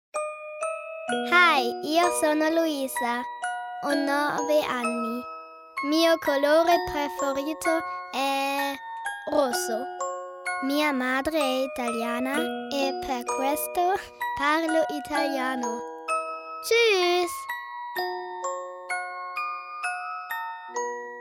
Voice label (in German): Selbstportrait italienisch